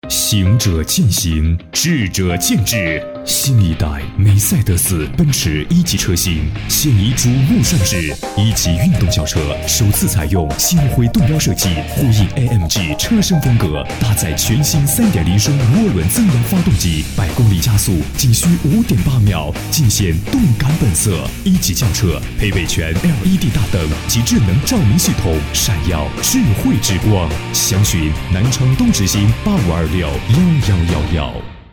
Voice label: 广告洋气动感; 奔驰梅赛德斯

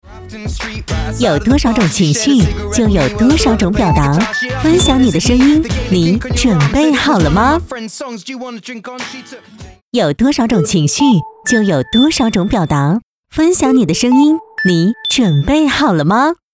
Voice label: 电台 女

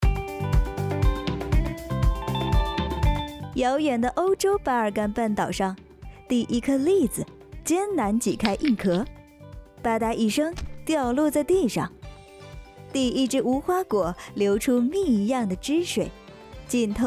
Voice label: 年轻活泼

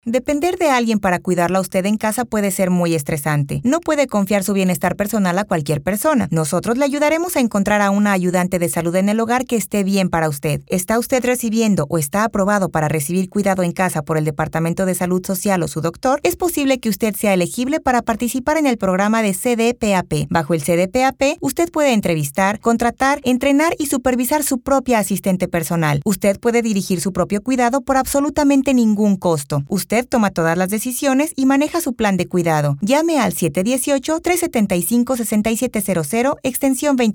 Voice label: 西班牙语 女 干音

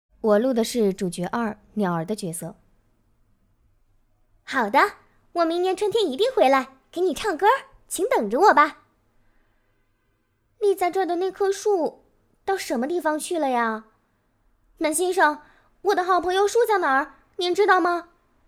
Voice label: 课本剧

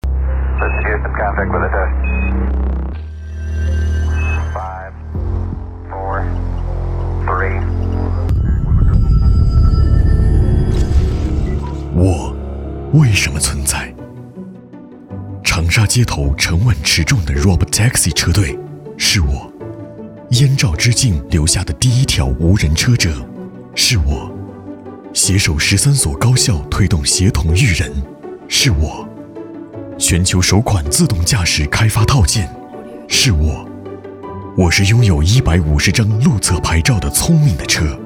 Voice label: 宣传汽车科技感

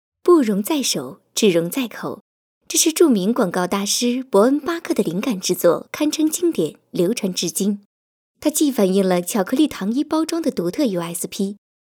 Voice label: 广告样音试音

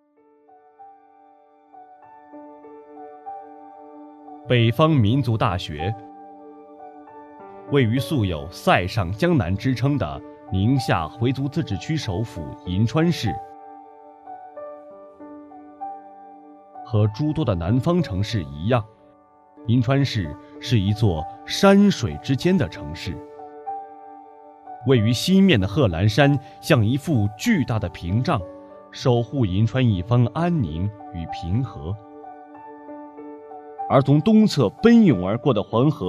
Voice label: 专题宣传

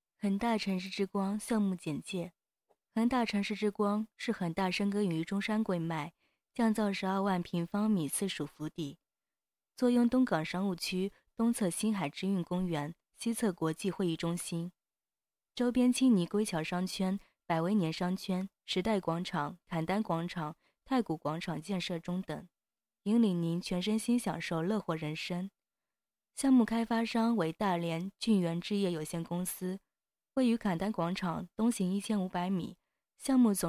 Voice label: 商配; 恒大城市之光项目

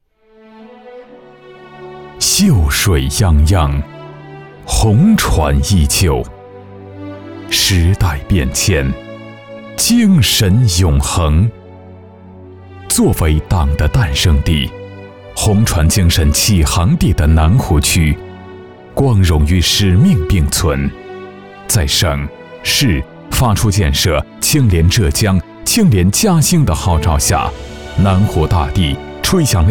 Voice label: 城市宣传片抒情; 清廉南湖

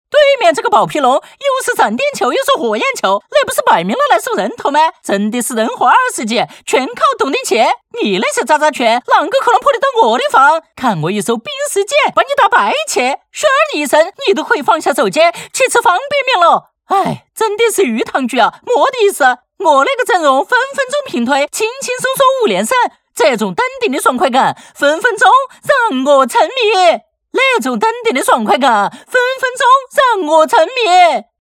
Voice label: 搞笑四川话

